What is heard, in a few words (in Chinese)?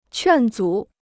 "劝阻", Chinese